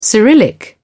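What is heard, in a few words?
"cyrillic", British English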